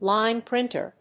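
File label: "line printer", English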